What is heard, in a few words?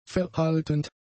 "verhaltend", German; adjectives